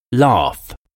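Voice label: "laugh", English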